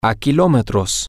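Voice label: 10 Kilometers Away, spanish, male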